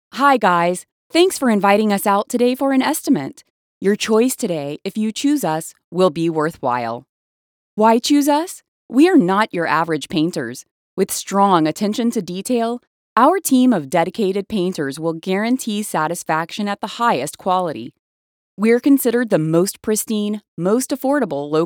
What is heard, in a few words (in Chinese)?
外籍英女 涂料公司宣传